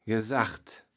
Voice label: "gesaggt", Kaevels Platt

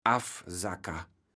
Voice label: "Affsacker", platt

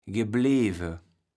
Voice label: "gebleve", Kaevels Platt

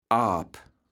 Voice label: "Aap", platt

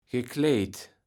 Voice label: "gekleijt", Kaevels Platt

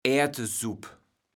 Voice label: "Aertesupp", platt

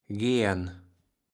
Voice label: "gärn", Kaevels Platt

